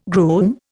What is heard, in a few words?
"groom", Indian